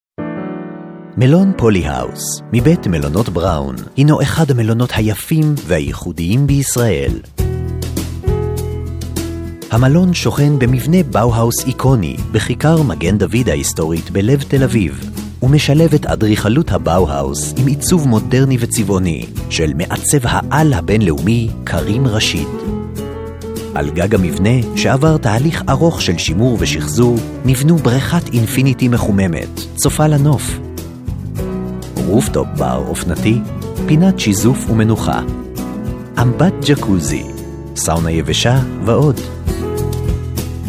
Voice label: hebrew others

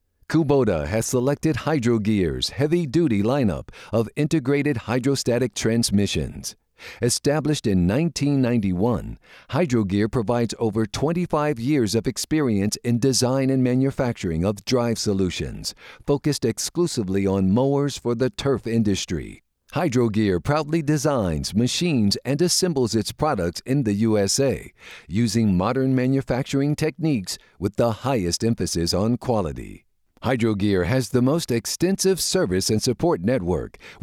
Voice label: english american corporate videos

